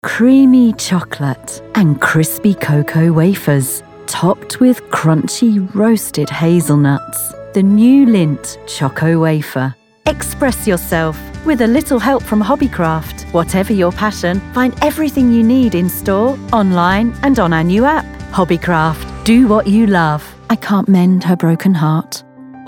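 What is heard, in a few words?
English, female, commercial; advertising voice over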